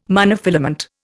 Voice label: "monofilament", Indian

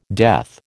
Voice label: "death", American

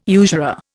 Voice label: "usurer", Indian

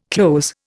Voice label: "cloze", Indian

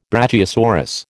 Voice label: "brachiosaurus", American

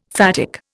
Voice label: "phatic", Indian; Dictionary

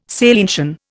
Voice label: "salientian", Indian

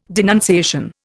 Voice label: "denunciation", Indian

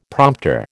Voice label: "prompter", American

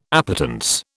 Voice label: "appetence", British

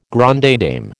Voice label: "grande dame", American

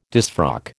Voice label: "disfrock", American

